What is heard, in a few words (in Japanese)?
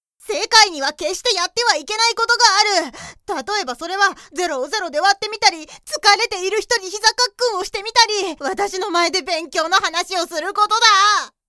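サンプルボイス, 男まさりな女の子 (地声)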